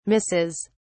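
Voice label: "misses", British English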